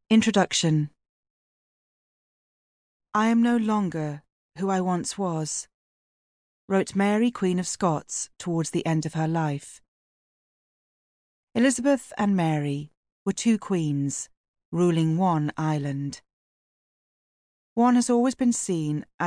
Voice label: Audiobook; Rival Queens by Kate Williams

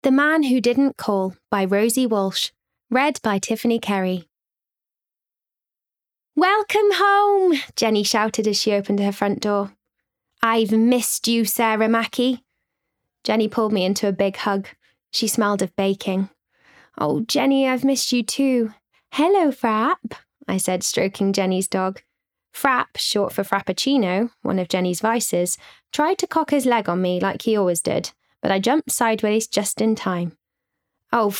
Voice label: Bookread; The Man Who Didn’t Call

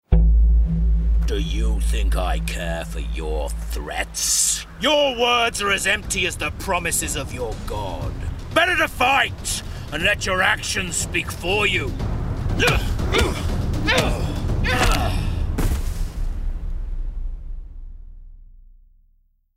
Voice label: Gaming Warrior

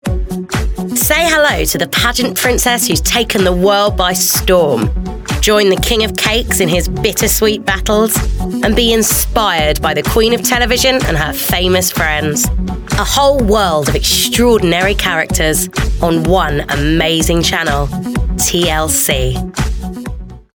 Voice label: Welsh; TLC Promo

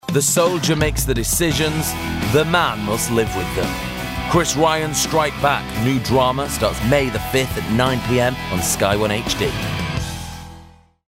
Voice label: Promo

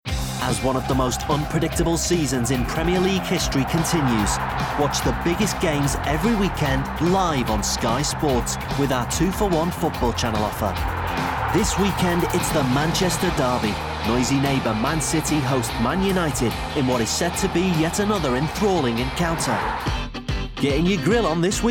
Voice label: Commercials Montage

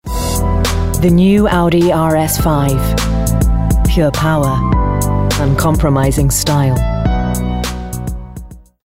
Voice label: Commercial; Audi

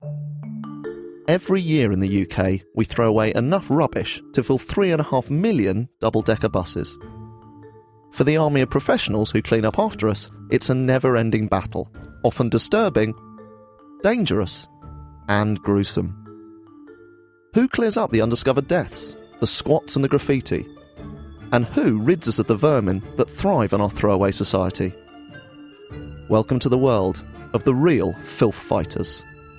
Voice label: NARRATION

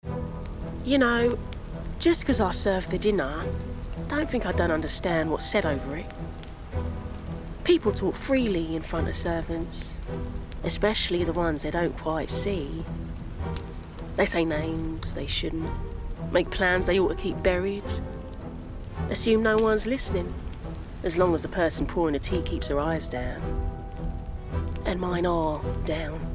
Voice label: Video Game Cockney Maid

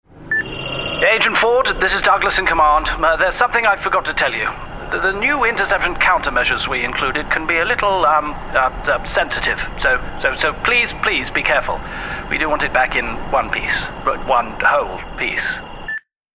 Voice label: Gaming Scientist RP

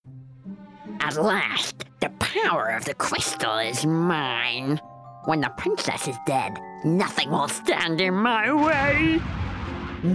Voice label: Animation Montage

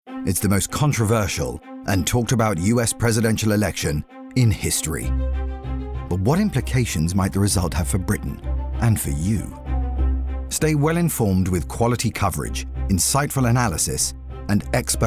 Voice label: Commercials Montage; 40's RP/Neutral, Reassuring/Versatile/Gravitas

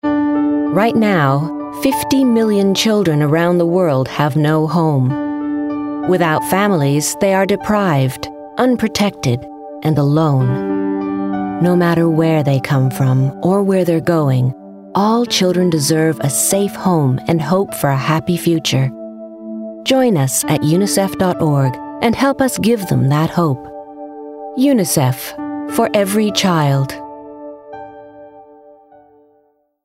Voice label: Commercial; UNICEF; Commercial Showreel

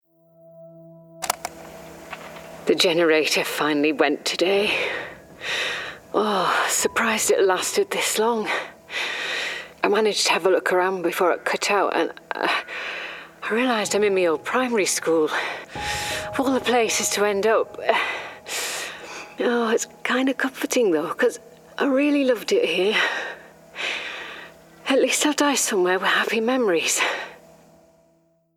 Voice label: Gaming Diary Recording Yorkshire

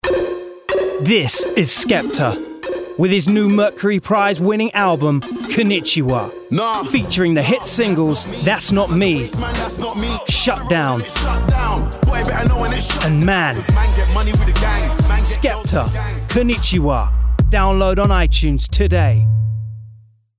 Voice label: Commercial

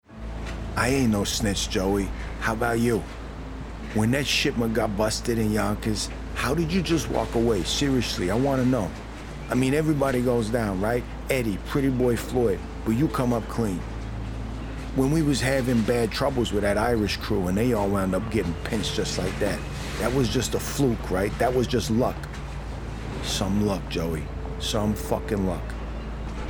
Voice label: NY Gangster

